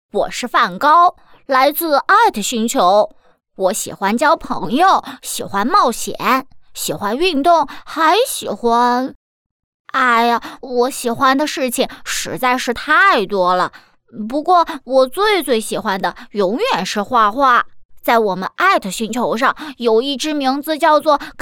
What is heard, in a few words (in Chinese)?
女 童声 古灵精怪男童两角色